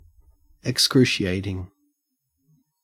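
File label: "excruciating", Australian English; pronunciations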